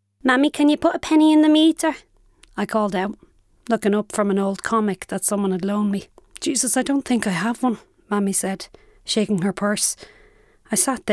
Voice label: narration; narration demo